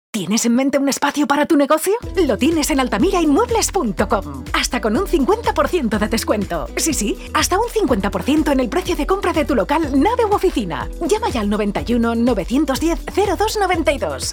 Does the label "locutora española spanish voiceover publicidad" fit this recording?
Yes